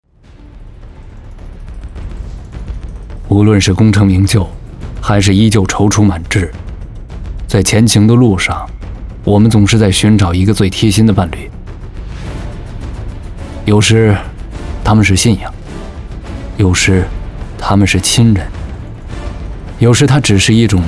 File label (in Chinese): 男2 自述